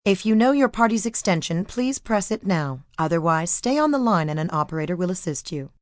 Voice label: Know Party Ext, Voicemail, telephone messages, female